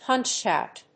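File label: "punched-out", English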